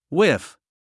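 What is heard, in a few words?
"whiff", English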